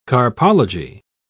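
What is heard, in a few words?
"carpology", English, British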